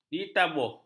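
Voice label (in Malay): "ditabuh", Malay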